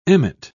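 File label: "emmet", British English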